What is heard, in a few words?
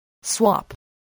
"swap", English